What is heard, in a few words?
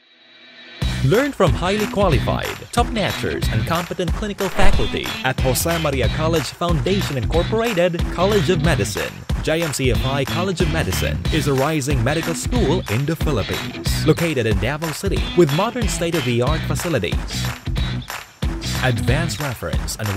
English tv shows